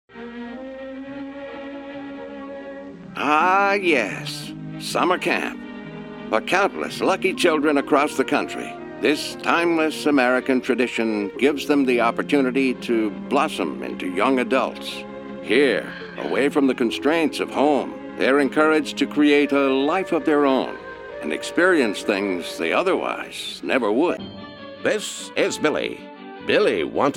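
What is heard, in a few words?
Old Timey, Voiceover; Voices Custom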